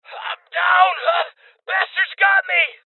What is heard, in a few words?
Voices Injury Male